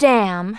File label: "Bummer", Smooth Babe; Worms Armageddon